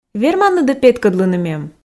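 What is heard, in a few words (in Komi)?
верманныд-ӧ петкӧдлыны мем, Komi, female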